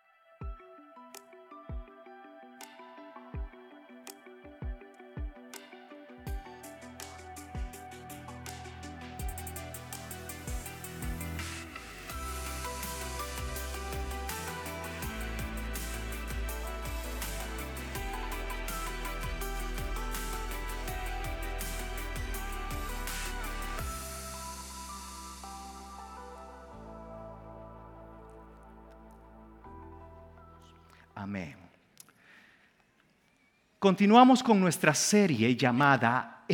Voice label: SERMON SPA